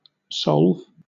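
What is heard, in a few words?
"solve", British English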